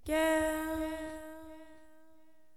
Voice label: "yeah", voice; Notes